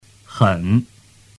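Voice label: "hen", Mandarin Chinese; tu moi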